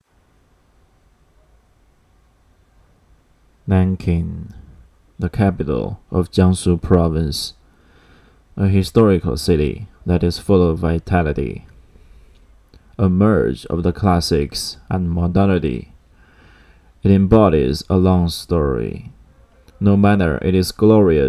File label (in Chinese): 英文; 这是最好的南京